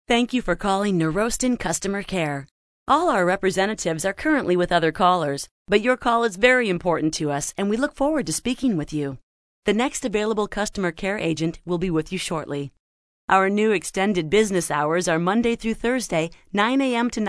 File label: ivr